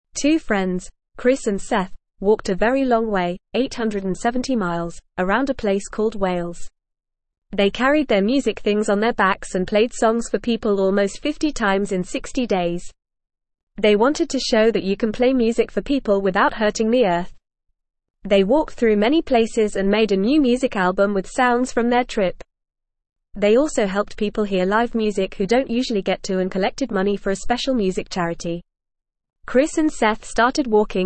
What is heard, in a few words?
English Newsroom Beginner FAST Reading; Two Friends Walk 870 Miles Playing Music